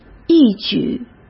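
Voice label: "一举", Mandarin Chinese; chinese-flashcards; hsk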